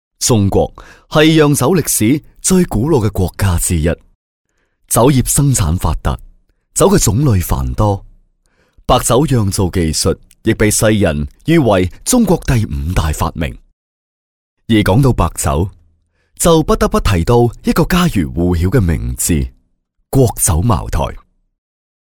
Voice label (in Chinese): 男粤 专题 企业 稳重; 粤语; 茅台